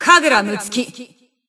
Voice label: System Voice; BBCP; Bullet